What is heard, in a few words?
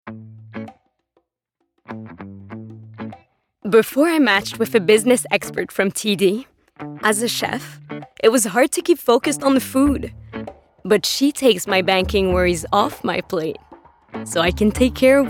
English voice; TD